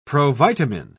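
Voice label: "provitamin", British English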